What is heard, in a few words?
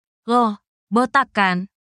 indonesian phrases